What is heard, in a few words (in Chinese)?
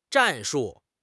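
"战术", Chinese; HSK6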